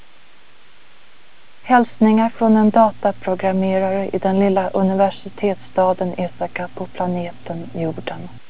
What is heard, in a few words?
swedish; Golden Record